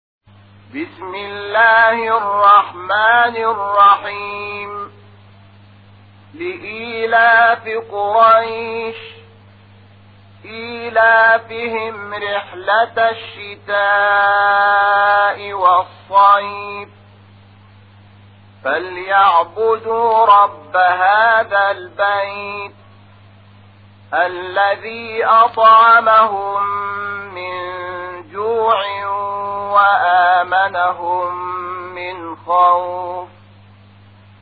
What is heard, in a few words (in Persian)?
ترتیل قرآن کریم سوره قریش; محمود شحات انور